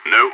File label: negative, radio; SAS